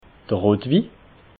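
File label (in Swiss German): "rotwi", Alsatian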